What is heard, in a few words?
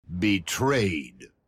betrayed voice; halo reach